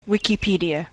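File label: "Wikipedia", US English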